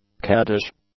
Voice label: "caddish", English; adjectives